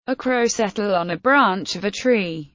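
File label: "a Crow settle on a branch of a tree", English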